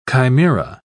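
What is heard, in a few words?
"chimaera", English, British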